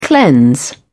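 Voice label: "cleanse", English, uk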